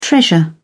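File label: "treasure", British English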